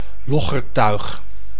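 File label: "loggertuig", Dutch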